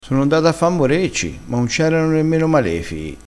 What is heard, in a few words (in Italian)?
"malefio", dialettale crespinese